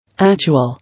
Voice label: "Actual" English